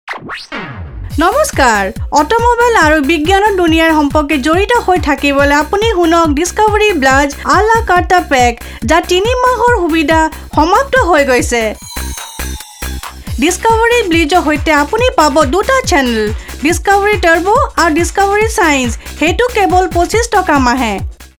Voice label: Assamese female

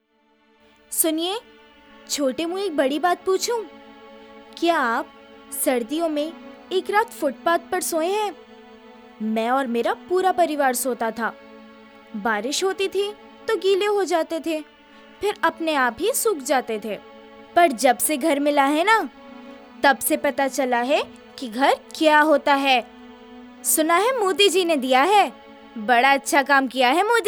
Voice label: F KID

